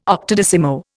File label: "octodecimo", Indian